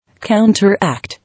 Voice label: "Counteract", English